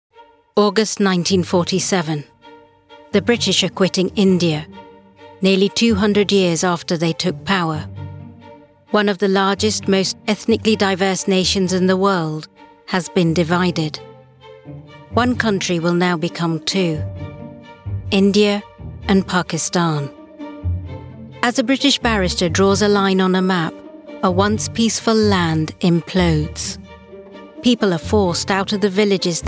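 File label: documentary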